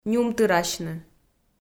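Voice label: "нюмтырасьны", Komi, female; kpv audio dict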